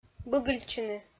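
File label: "быгыльтчыны", Komi, female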